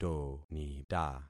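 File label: "tonita", concatenated syllables; Affixing experiment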